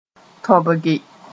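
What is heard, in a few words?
"tõbge", Mooré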